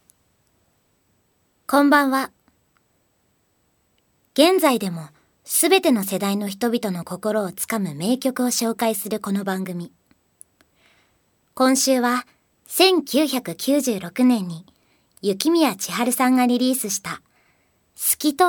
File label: narration